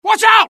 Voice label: alert, dialogue UK; mohaa

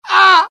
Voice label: death, UK; mohaa; dialogue

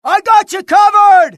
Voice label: suppress, dialogue, US; mohaa

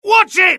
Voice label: alert, dialogue UK; mohaa

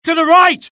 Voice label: alert, UK; mohaa; dialogue

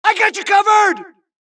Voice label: supress, dialogue Allies; mohaa; Mission 2

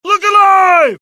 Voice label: alert, dialogue generic US; mohaa

